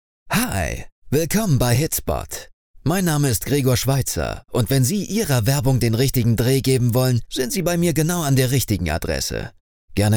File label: SPOT